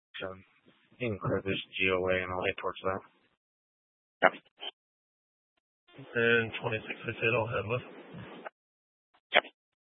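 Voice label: sheriff dispatch radio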